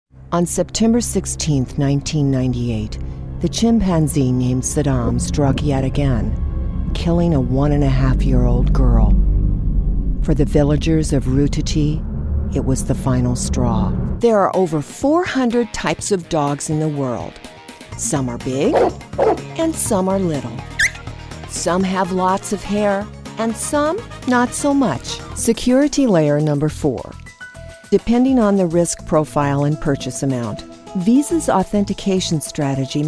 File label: Narration